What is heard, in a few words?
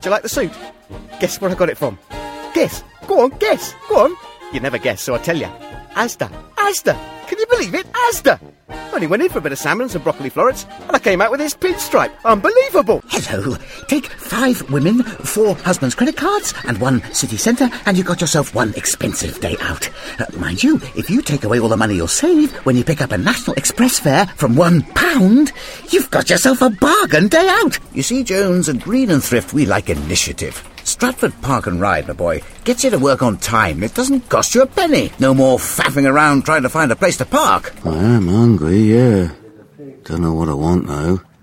Character